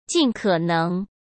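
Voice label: "尽可能", Mandarin Chinese; hsk; chinese-flashcards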